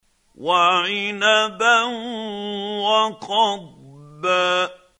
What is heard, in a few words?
quran, Hussary, tafseer; Abasa